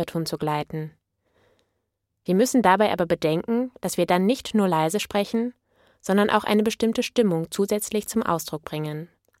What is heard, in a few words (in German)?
Flüstern mit und ohne Druck